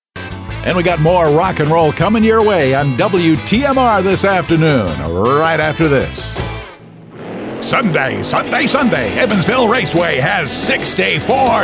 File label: 50s Retro